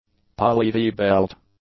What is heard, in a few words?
"poly-V-belt", English; nouns